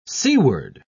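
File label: "seaward", British English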